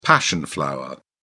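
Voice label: "passion flower", British English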